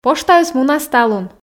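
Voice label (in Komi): поштаыс мунас талун, Komi, female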